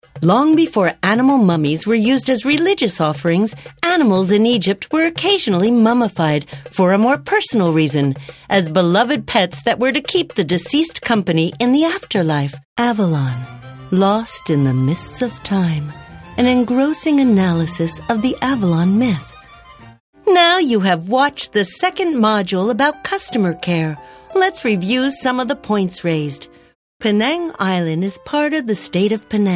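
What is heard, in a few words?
American, narration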